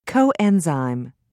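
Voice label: "coenzyme", English, British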